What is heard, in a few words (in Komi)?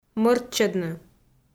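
"мӧрччӧдны", Komi, female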